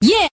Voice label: "yeah" kid; ooh ahh

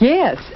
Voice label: "yes", female

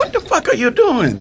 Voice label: what the fuck are you doing, male; questions

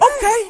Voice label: "okay", expletives

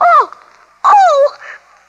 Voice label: "oh oh", Voice; pinnochio

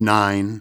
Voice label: nine announcer; Voice; numbers and letters

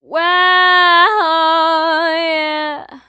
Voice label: "wah ho yeah", Stabs

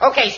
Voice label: "okay", expletives

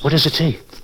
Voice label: what does it say, male; questions

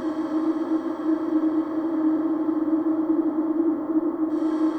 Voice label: whispering; Rumbles

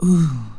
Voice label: ow female

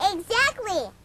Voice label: exactly cartoon

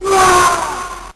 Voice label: "wah" robotic male

